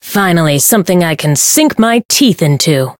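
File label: Voice encounter fear; minalca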